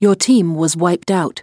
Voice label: dead, English